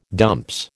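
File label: "dumps", American English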